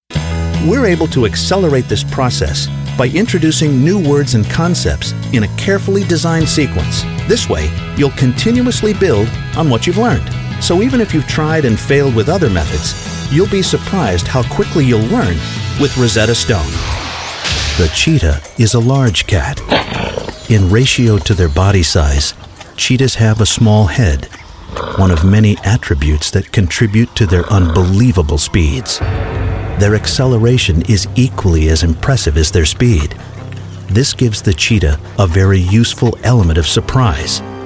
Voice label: Narrations